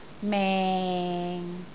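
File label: "meng6", Cantonese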